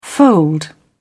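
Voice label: "fold", British English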